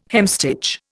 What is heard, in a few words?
"hemstitch", Indian